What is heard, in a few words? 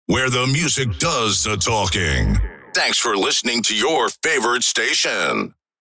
Vocals radio voice sweeper where the music does the talking